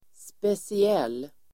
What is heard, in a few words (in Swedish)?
"speciell", Swedish